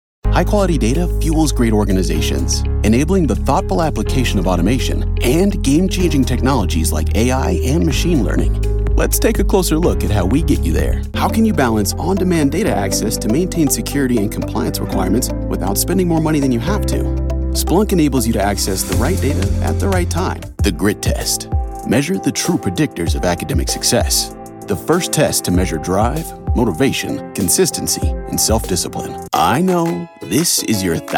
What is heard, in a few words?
Narration Male